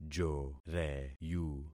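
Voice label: "joreyu", concatenated syllables; Affixing experiment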